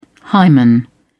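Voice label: "hymen", British English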